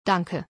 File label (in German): "Danke", German